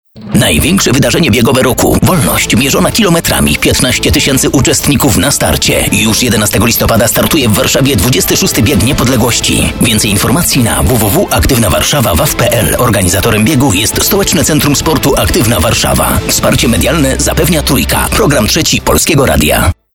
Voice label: spot